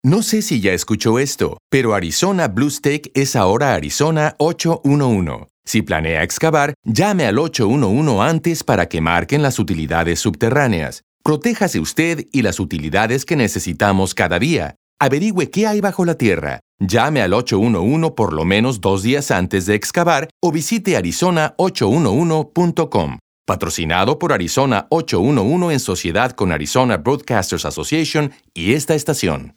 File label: Spanish; Arizona811